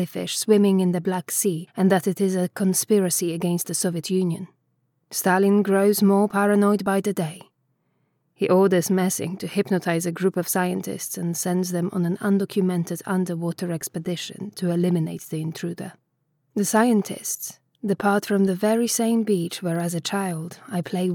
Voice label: Eastern European, Polish, Female, 20s-30s, Home Studio Read